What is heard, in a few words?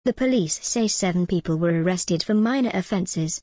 en gb the police say seven people were arrested for minor offences